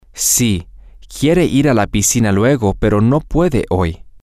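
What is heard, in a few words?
yesSheWantsToGoToThePoolLaterButSheCantToday, Spanish, male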